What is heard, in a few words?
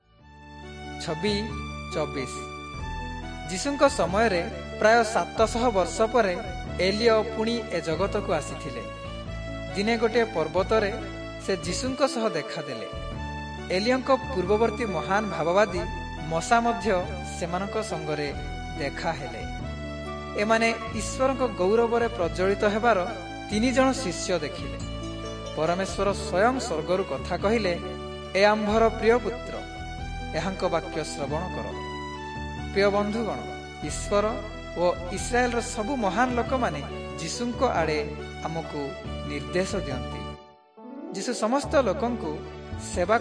southern oriya; servants of god